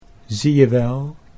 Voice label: "zie je wel", Dutch